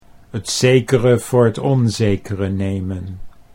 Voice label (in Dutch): "t zekere voor t onzekere", Dutch